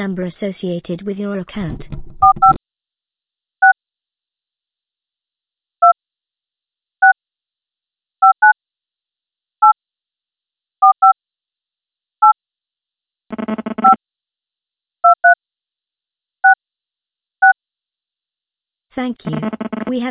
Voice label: recorded call